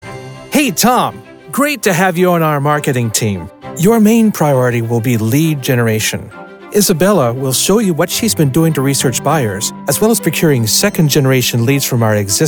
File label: elearning hr dialog; elearning demo